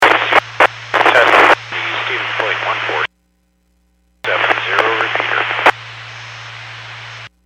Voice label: Skywarn 146.67; Stevens Point